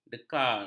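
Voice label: "dekar", Malay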